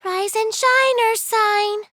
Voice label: rise and shine sad; monophanie